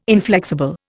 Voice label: "inflexible", Indian

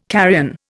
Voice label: "carrion", Indian

